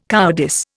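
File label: "cowardice", Indian English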